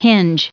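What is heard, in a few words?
"hinge", US English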